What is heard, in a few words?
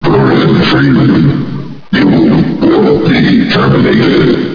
alien vox